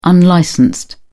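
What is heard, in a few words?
"unlicensed", English, British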